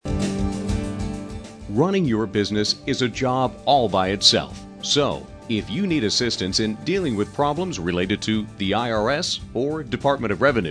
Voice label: Corporate Accounting Group On Hold, messages

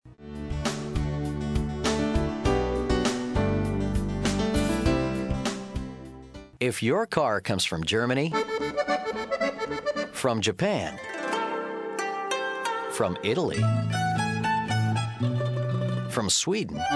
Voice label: Auto Repair Male On Hold

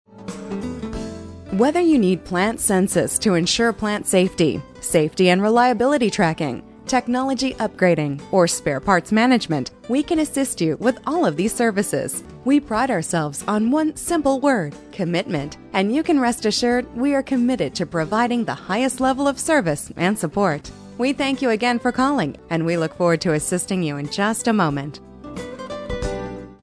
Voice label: Industrial Female On Hold

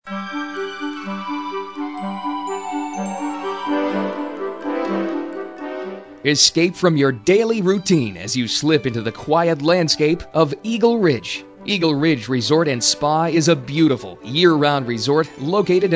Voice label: Hotel Male On Hold

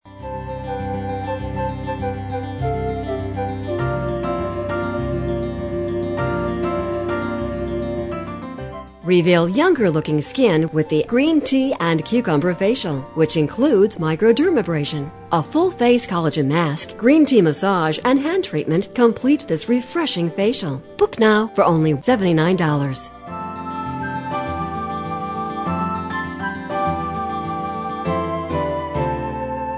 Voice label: Beauty Salon Female On Hold

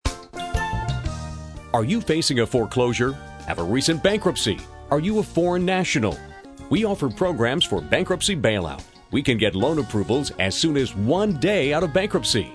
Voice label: Realty Male On Hold

